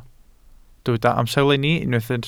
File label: "amserlenni", Welsh